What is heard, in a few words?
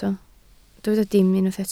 "dim", Welsh